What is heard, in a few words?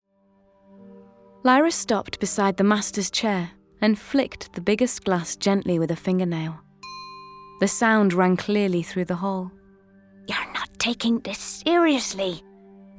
Audiobook